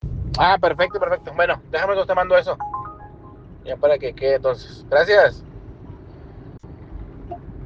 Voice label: whatsapp ptt